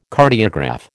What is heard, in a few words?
"Cardiograph", American